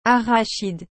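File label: "arachide", French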